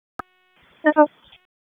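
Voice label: Police Northeast District Dispatch, Raleigh; wake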